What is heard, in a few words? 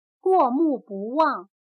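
"过目不忘", Chinese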